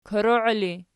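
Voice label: "koroii" ergative, Archi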